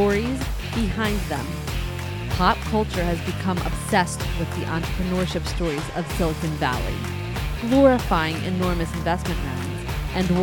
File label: Podcast Trailer; Extra Ordinary Small Business Podcast